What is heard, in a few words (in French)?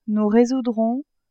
"nous résoudrons", French